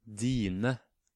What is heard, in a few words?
"Dine", Norwegian